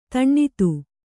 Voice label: "ಧನದ", Kannada

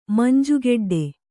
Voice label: "ಮಂಜುಗೆಡ್ಡೆ", Kannada

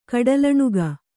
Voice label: "ಕಡಲಣುಗ", kannada

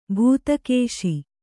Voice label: "ಭೂತಕೇಶ", Kannada

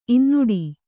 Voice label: "ಇನ್ನುಡಿ", Kannada

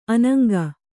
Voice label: "ಅನಂಗಿ", Kannada

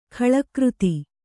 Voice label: "ಖಳಕೃತಿ", kannada

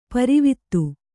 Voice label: "ಪಾರಾವತ", Kannada

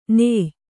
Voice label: "ನೇಯ್", Kannada

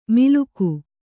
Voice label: "ಮಿಲುಕು", Kannada

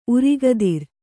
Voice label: "ಉರಗಧರ", Kannada

